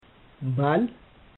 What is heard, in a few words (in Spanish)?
"bal", amharico